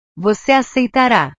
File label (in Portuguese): "voce aceitara", portugues; Tú aceptarás; verbos